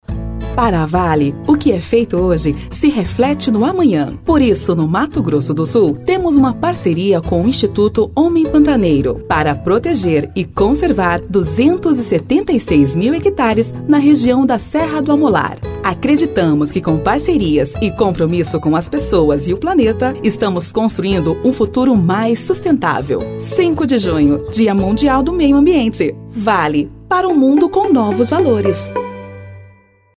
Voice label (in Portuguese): Spot